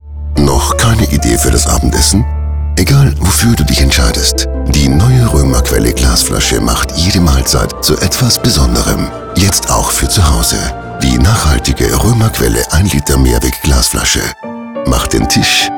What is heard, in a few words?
Spot; Roemerquelle